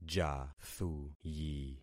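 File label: "jathuyi", concatenated syllables; Affixing experiment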